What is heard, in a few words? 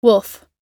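"wolf", English word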